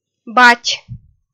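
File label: "бать", Komi, female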